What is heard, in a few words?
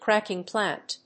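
"cracking plant", English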